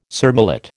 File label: "surmullet", American